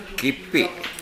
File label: "geppe", minamiyamagata